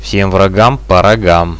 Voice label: win, Russian; bot, radio